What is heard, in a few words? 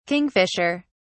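"kingfishers", British English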